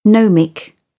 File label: "gnomic", British English; oʊ - əʊ